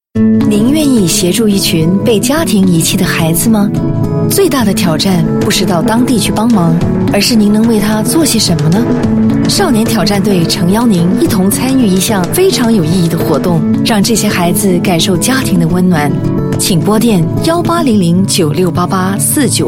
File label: Mandarin; Youth Challenge Asian